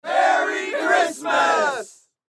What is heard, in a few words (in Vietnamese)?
Tieng dam dong noi merry christmas